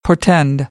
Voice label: "portend", American English; ɔːr - ɔː